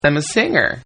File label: Man Says Im A Singer, Talking; Voice; Partners In Rhyme